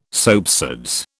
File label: "soapsuds", British